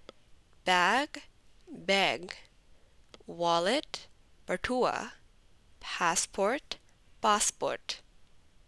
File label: Punjabi My bag wallet purse was stolen